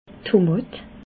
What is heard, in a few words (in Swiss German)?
"tomat", alsace